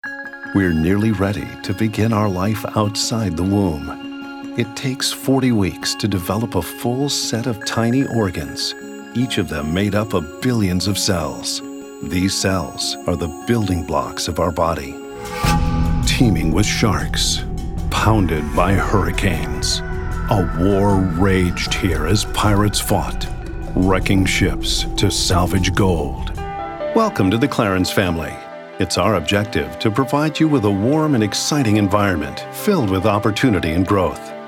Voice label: narration